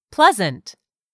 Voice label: "PLEASANT", English; 3000 words